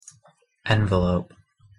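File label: "envelope" noun pronunciation English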